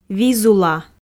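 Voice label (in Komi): "визула", Komi, female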